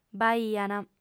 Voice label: "b'aiant", lingua sarda